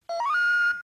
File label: anime cute sound